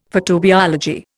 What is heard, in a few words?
"photobiology", Indian; Dictionary